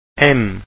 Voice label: em close, Portuguese vowels